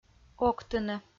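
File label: "октыны", Komi, female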